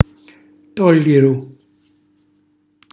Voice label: "tolliru", Calabrese; all'ultimo stadio; cucinacalabrese; glossario

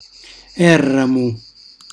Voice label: "erramu", Calabrese; solitario, abbandonato; cucinacalabrese; glossario

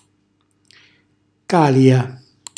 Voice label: "calia", Calabrese; ceci tostati

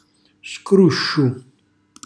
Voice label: "scrusciu", Calabrese; cucina calabrese

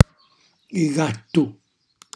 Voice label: "gattu", Calabrese; gatto; cucinacalabrese; glossario

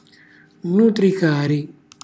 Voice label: "nutricari", Calabrese; cucina calabrese; Glossario

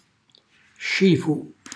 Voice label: "scifu", Calabrese; cucina calabrese

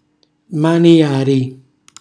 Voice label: "manjari", Calabrese; cucina calabrese; Glossario

